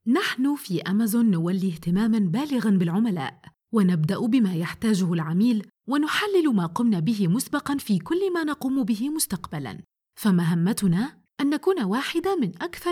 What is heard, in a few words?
Internal Corporate Narration; Amazon